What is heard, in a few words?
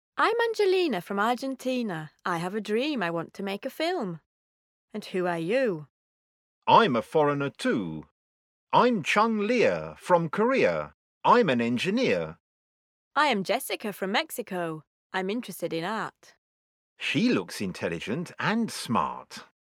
dialog